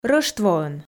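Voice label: "рӧштвоӧн", Komi, female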